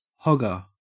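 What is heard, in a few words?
"hogger", Australian English; pronunciations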